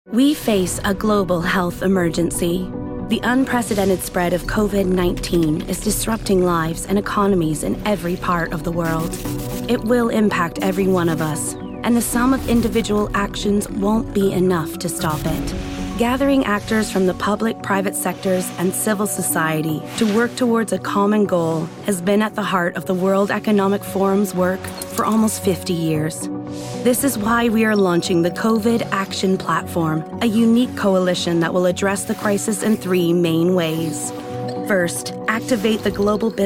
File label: Corporate